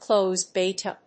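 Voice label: "closed beta", English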